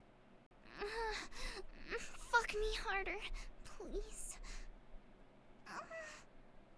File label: *moaning* Fuck me harder! Please!; Twilight Sparkle